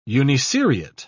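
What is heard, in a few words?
"uniseriate", British English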